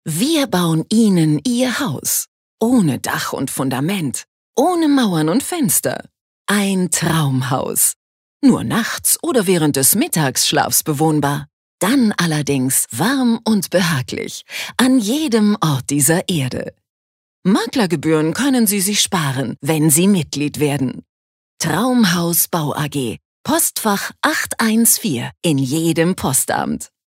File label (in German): Werbung Dynamisch